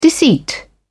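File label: "deceit", English, British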